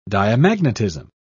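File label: "diamagnetism", British English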